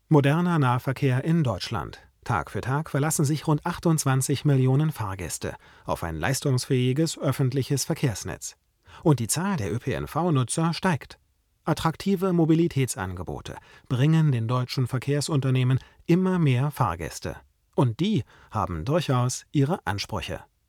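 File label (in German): tlm67 wie u87 bearbeitet, sprecherraum mikrofonvergleich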